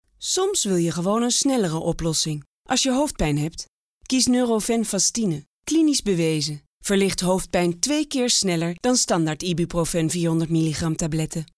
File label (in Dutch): zakelijk